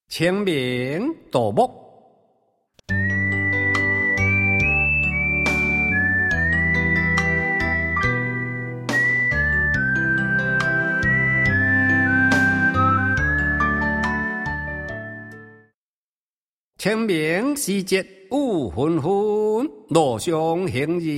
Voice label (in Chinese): 清明-朗讀